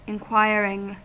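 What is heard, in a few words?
"inquiring", US English; pronunciations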